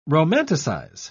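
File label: "romanticise", English, British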